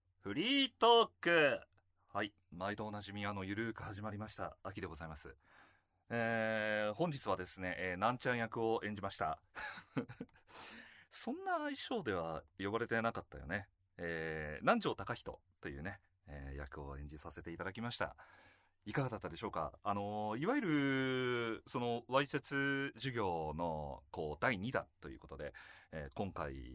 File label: FreeTalk, ASMR